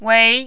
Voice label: "wei2", Mandarin